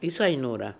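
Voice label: "Issi Noora", pronunciations; Fourth Way; Beelzebub pronounciations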